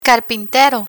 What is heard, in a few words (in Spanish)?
"carpintero", Spanish; spanish vocabulary; learn spanish